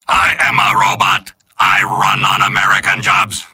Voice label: soldier robot; mvm